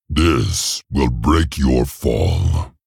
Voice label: Male Tauren Play

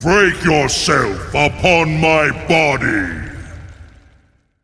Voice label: Male Elemental Play

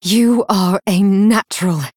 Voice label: Female Night Elf Combat Win

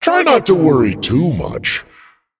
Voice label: Dragon

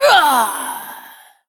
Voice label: Female Undead Death

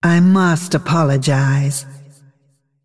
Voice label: Female Dragon Sorry

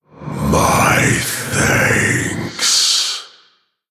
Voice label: Male Orc Thanks; Garrosh Deathknight

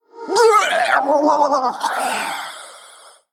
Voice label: Female Faceless Death

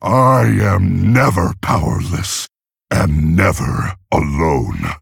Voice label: Male Orc Idle; Thrall; Garrosh

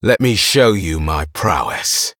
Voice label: Male Blood Elf

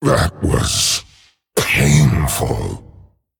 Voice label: Male Dragon Oops

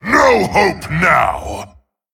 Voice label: Male Tol'vir Attack

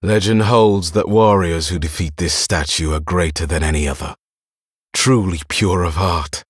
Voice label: Male Blood Elf Start; Halus

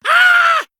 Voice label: Male Kobold Death

